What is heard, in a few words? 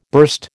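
"burst", American English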